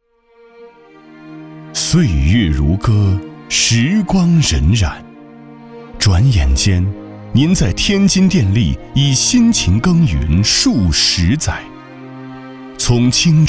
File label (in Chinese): 男,走心宣传片